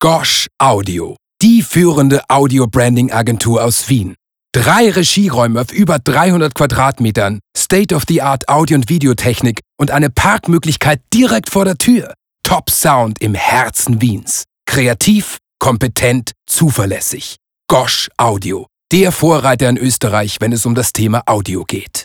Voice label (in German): plakativ